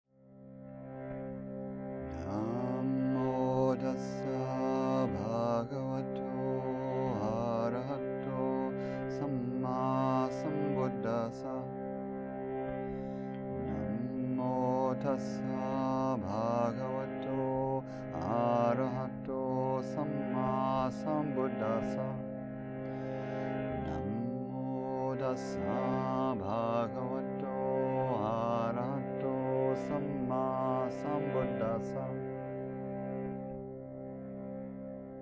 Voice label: Meditation Natural Altered Breathing